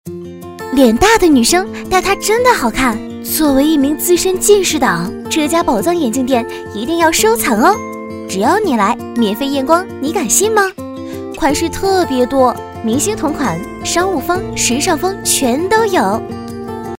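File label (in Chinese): 广告 女 欢快 眼镜广告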